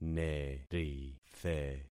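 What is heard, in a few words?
"nerithe", concatenated syllables; Affixing experiment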